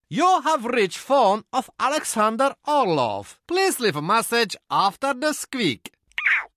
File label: Voicemail message After Squeak